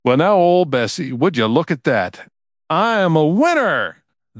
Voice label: happy, speech; fertig classic games